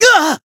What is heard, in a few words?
damaged jp